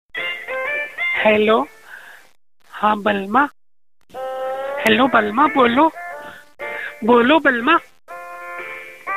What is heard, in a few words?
funny hindi msg tone